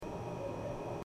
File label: Aviation 121.5 Emergency